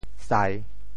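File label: "sai3", Teochew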